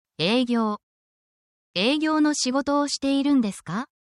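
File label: Japanese word, essential Japanese phrases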